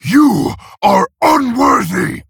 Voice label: Male Orc; Thrall; Garrosh; Hero Power

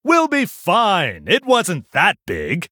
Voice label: Male Human Play

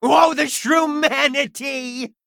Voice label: Male Kobold Death

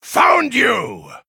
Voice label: Male Blood Elf Attack

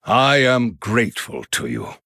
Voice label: Male Human Thanks

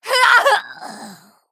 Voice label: Female Blood Elf Death

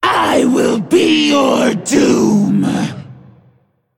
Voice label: Female Dragon Play

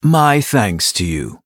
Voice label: Male Blood Elf Thanks

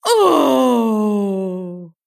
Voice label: Female Tortollan Death

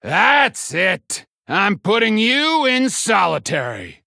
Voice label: Male Human, Loss

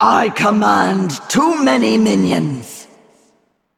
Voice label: Female Val'kyr ERROR FULL MINIONS

